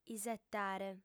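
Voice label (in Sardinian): "isetare", Sardinian, female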